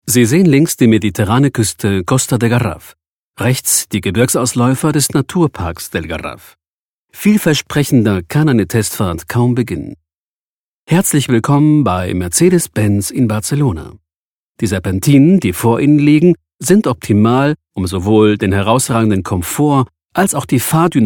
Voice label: De Werbung; Mercedes Benz